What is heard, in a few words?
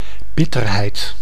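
"bitterheid", Dutch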